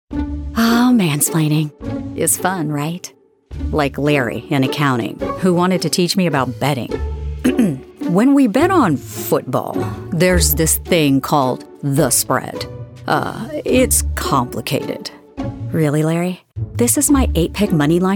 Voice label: Commercial